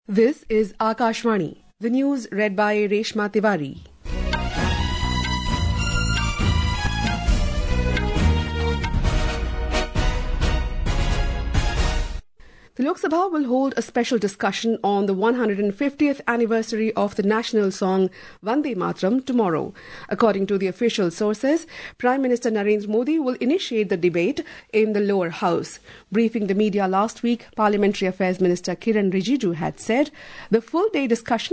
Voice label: English; Larsen and Toubro Limited for acquisition of 3 cadet training ships